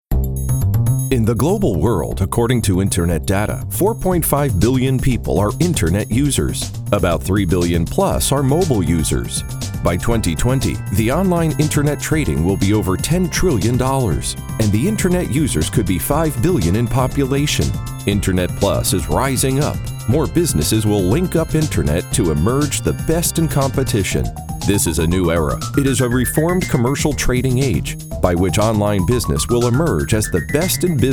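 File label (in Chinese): 男英大音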